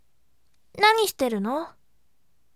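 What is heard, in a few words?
voice, bright; nanishiteruno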